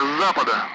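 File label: Speech Sound Russian Common Allied Flight; DCS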